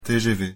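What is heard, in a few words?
"TGV", French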